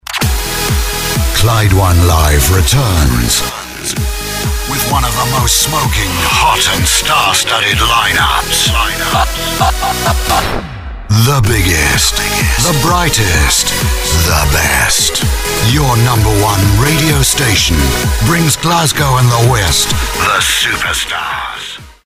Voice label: anti announcer gritty

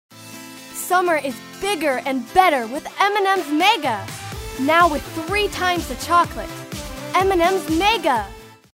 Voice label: upbeat youthful high energy

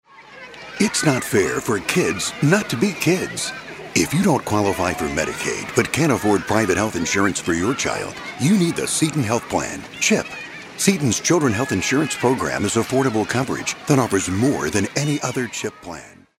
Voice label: caring

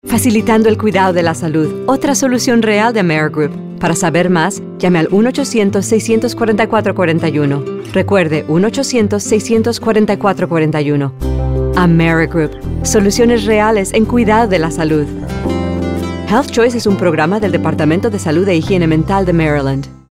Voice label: announcer

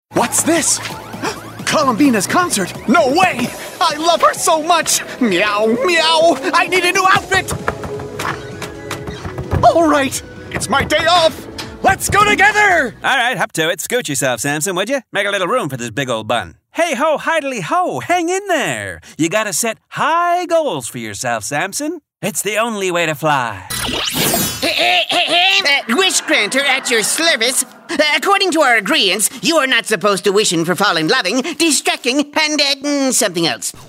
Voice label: Animation Characters

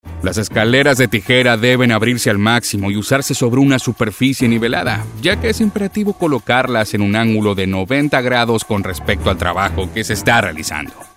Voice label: Elearning - Neutral Spanish

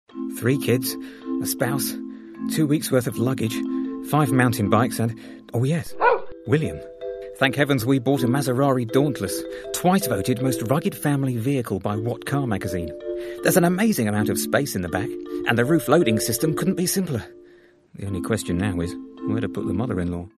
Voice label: soft spoken

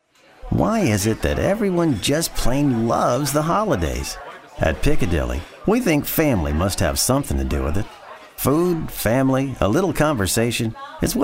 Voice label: folksy

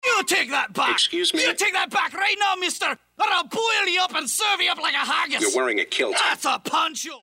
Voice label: scottish

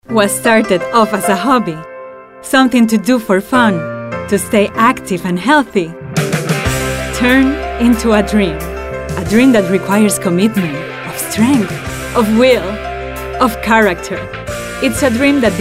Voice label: Inspirational

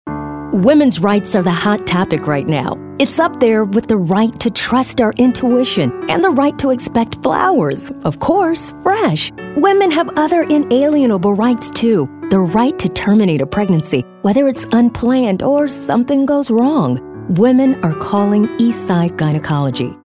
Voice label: Caring

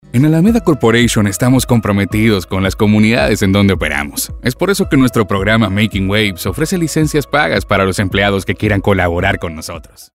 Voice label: Corporate Latin Neutral

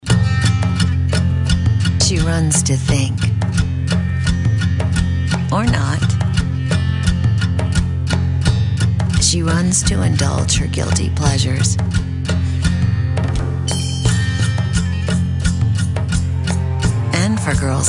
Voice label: thoughtful

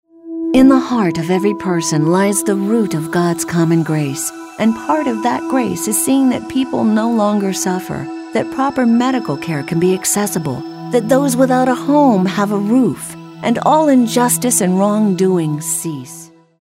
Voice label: concerned

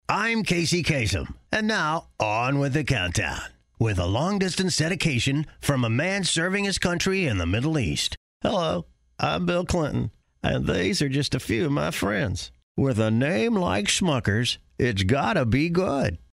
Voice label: impressions

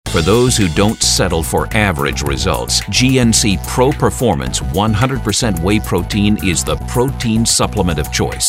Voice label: authoritative attitude tough

